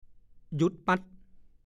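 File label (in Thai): "หยุ๊ดปั่ด" ภาษาโคราช; หยุดทันที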